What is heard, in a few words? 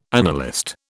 "annalist", British